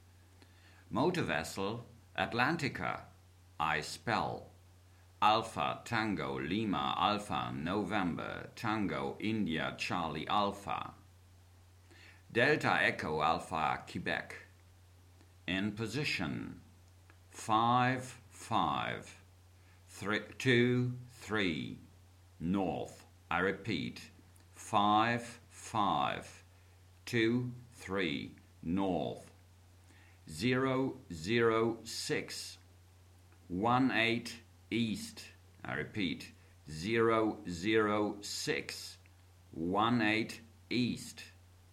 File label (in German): Seefunktext